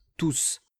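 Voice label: "tous", French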